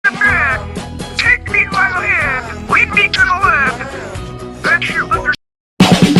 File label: squeaky voice; TikTok Soundboard